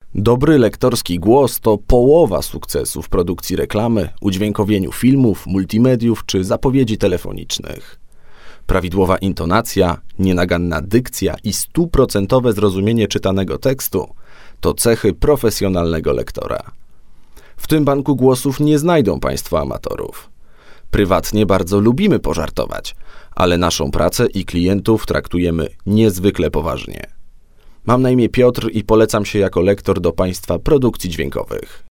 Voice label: Polish Male

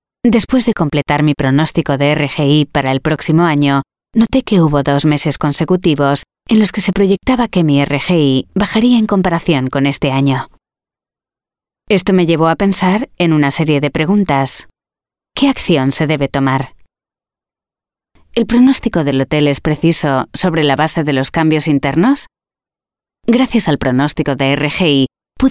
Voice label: European Spanish eLearning

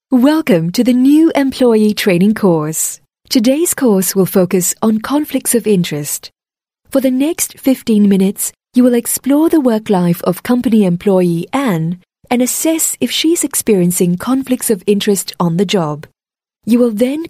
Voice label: Asian English Elearning

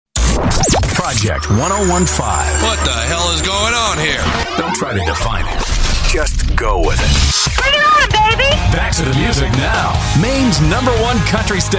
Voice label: US English Radio Imaging

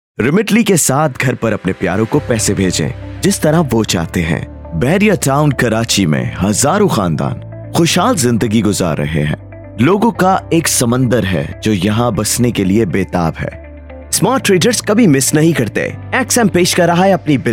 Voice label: Urdu Male With BGM

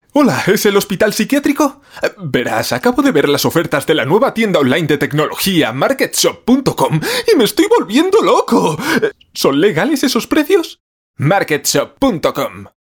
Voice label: Euro Spanish Male Comedy

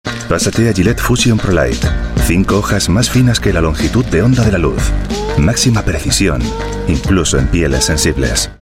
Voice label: European Spanish Commercial

